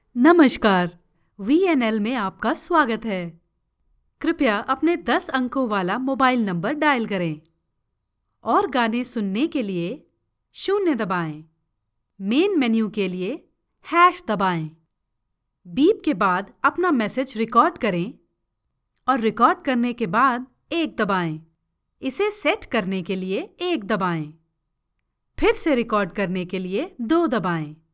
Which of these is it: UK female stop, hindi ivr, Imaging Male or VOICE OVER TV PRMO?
hindi ivr